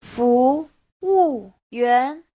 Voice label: "fu2 wu4 yuan2", chinese

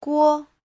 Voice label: "guo5", chinese; 过; đã từng

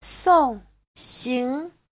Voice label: "song4xing2", Chinese; 送行 : đưa tiễn; Giáo trình Hán ngữ

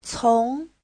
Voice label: "cong2", chinese

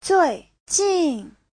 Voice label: "zui4jin4", chinese; 最近; Giáo trình chuẩn HSK3

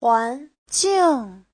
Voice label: "huan2 jing4", chinese; Giáo trình Hán ngữ

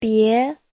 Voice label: "bie2", Chinese

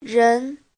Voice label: "ren2", Chinese

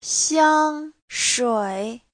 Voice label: "xiang1shui3", Chinese

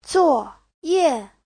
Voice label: "zuo4ye4", Chinese; 作业

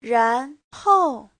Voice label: "ran2hou4", chinese; Giáo trình Hán ngữ

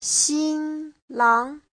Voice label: "xin1 lang2", Chinese; Giáo trình Hán ngữ

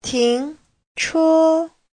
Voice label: "ting2che1", Chinese; Giáo trình Hán ngữ

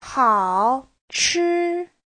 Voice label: "hao3 chi1", chinese

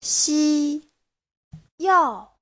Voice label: "xi1yao4", Chinese

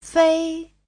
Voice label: "fei1", chinese

